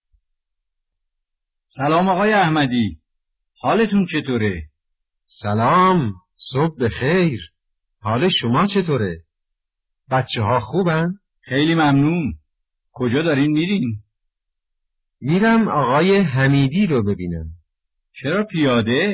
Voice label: conversation